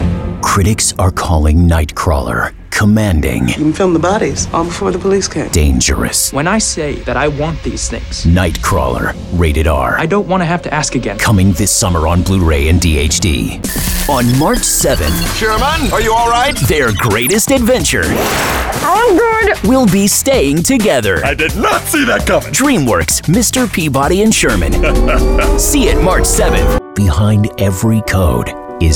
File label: Trailer